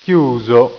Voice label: "chiuso", italiaans

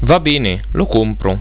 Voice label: "va bene", italiaans

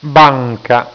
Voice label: "banca", italiaans; plaatsen

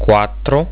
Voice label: "quattro", italiaans; getal

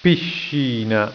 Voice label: "piscina", italiaans; plaatsen

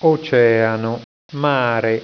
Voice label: "oceano", italiaans; plaatsen

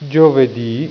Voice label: "giovedi", italiaans; tijden

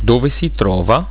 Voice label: "dove", italiaans; reizen

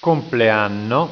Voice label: "compleanno", italiaans; tijden

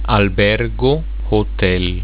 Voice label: "albergo", italiaans; reizen

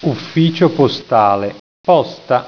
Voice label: "ufficio postale", italiaans; plaatsen

